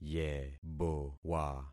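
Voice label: "yebowa", concatenated syllables; Affixing experiment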